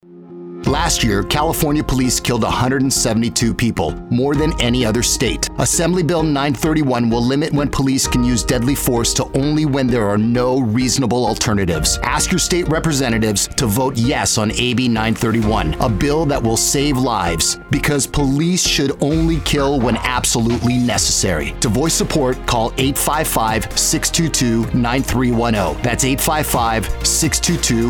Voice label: Radio Ad